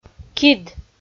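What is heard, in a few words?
"кид", Komi, female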